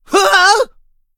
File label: hit, en